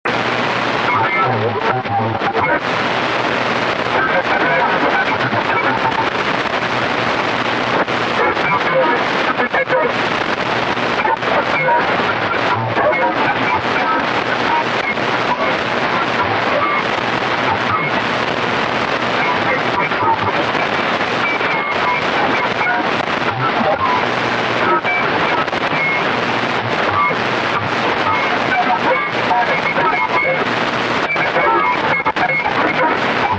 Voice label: Voices Encrypted, static

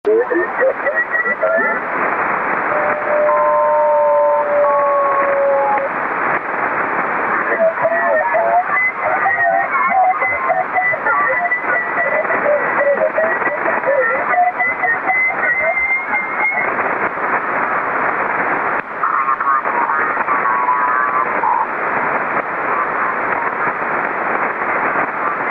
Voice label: Voices Encrypted Ri, static

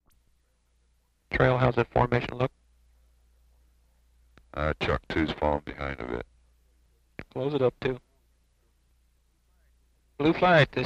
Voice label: Futz Radio: Pilot Communications Over Headsets; Mono

